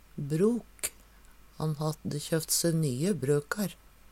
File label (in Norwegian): brok, Numedalsmål; Substantiv hokjønn; Personleg utstyr, klede, sko